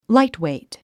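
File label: "lightweight", English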